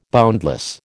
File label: "boundless", American